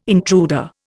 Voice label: "intruder", Indian